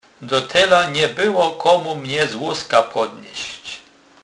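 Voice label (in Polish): "do tela", Kurpie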